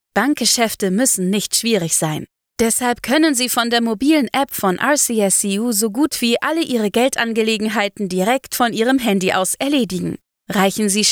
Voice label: Professional